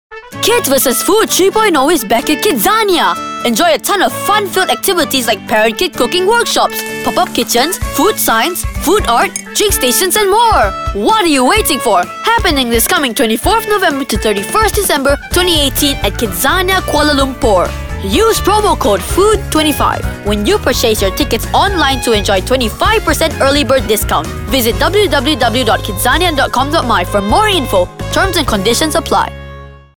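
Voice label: English; KIDZANIA; KIDZ FOOD 3.0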